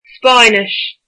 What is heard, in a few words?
"Spanish", Irish